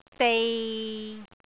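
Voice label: "dei6", Cantonese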